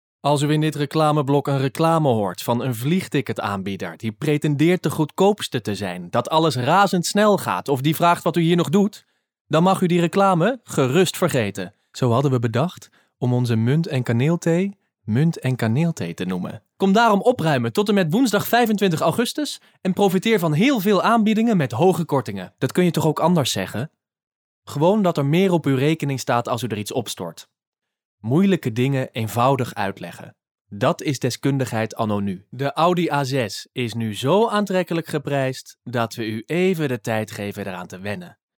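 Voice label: Dutch, male, voice over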